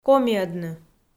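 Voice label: "комиӧдны", Komi, female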